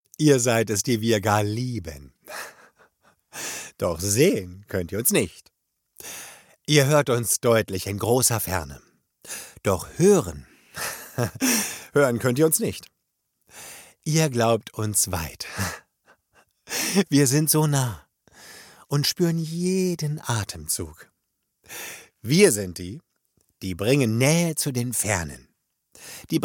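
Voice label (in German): fröhlich, Männer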